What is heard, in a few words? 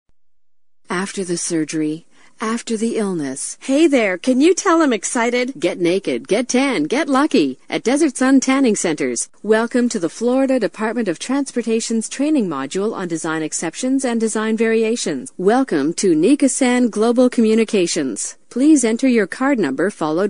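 English American female voice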